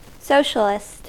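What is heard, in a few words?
"socialist", US English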